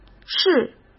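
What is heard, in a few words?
"事", Mandarin Chinese; hsk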